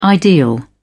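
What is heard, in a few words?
"ideal", British English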